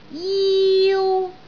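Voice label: "jiu1", Cantonese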